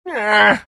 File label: gyro anger; Dota 2; gyrocopter